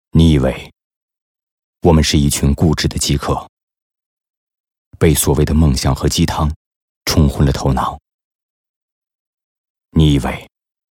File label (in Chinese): 男, 独白, 励志; 内心独白; 我们的时代来了